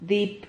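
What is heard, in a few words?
"dip", Spanish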